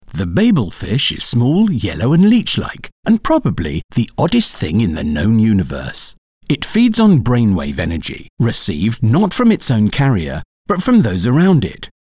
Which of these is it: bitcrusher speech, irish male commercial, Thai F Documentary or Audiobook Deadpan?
Audiobook Deadpan